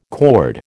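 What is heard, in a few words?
"cord", American English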